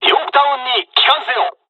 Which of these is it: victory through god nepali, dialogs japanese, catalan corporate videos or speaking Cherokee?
dialogs japanese